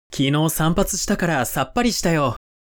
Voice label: man